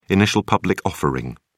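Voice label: "initial public offering", British English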